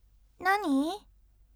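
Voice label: voice nani bright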